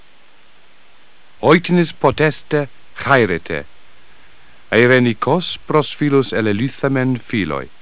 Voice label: greek; Golden Record